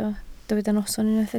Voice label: "noson", Welsh

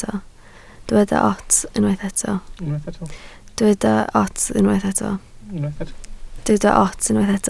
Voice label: "ots", Welsh

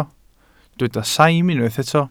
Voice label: "saim", Welsh

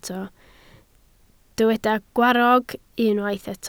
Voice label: "gwarrog", Welsh

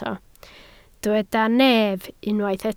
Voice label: "nef", Welsh

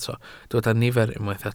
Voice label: "nifer", Welsh

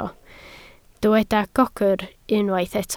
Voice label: "gogr", Welsh

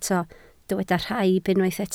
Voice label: "rhaib", Welsh

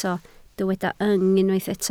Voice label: "yng", Welsh

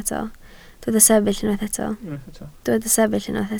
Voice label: "sefyll", Welsh

